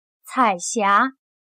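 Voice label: "彩霞", Chinese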